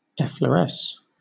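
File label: "effloresce", British English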